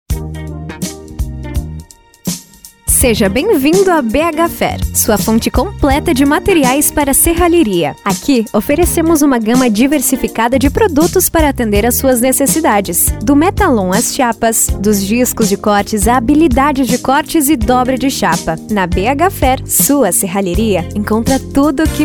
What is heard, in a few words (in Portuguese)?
espera telefonica